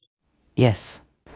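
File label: Voice Acting Spanish Female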